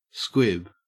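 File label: "squib", Australian English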